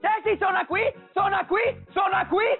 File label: taxi